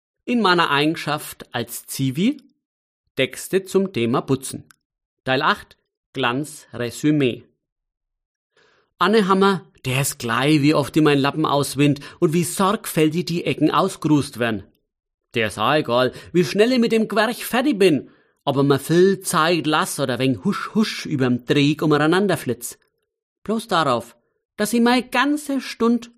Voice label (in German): Mundart